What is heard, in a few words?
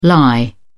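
"lye", British English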